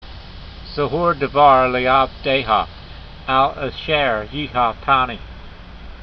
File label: voice, hebrew language; Psalm 119:49; zehor-davar le'avdeyha, al a'sher yeehalta'nee